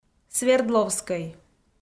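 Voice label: "свердловскӧй", Komi, female; kpv audio dict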